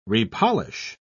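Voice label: "repolish", British English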